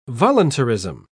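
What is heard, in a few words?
"voluntarism", British English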